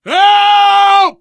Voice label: help, player survivor voice biker

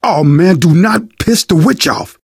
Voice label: witch getting angry, survivor voice; source engine; left 4 dead 2; manager

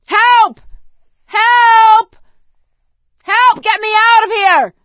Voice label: call for rescue, survivor voice; source engine; left 4 dead 2; producer

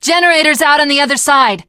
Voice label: initial meeting, teen girl; video game; source engine; left4dead2; survivor

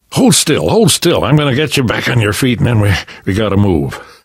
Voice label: revive friend, left4dead2 survivor voice namvet; source engine

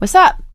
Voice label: scenario join, survivor voice; source engine; left 4 dead 2; producer

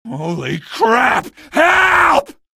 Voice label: evac casualty, male; video games; npc; source engine; episodic; episode 1

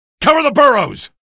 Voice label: alert antlions, male; video games; npc; source engine; episodic; episode 1

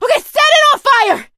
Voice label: battlecry tank, survivor voice; source engine; left 4 dead 2; producer

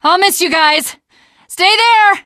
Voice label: jumping off bridge, teengirl; Left 4 Dead; Source Engine; video games

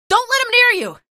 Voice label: alert rollers, female; video games; npc; source engine; episodic; episode 1

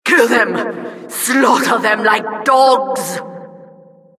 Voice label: announcer, vo, killstreak

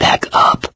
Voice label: backup quiet, survivor voice mechanic; source engine; left 4 dead 2

